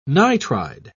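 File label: "nitride", British English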